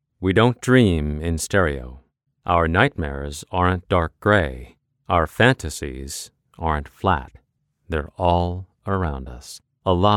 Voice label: commnarr serious; Dolby; serious - deep